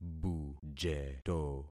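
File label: "bujeto", concatenated syllables; Affixing experiment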